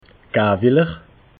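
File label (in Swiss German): "gawiller", Alsatian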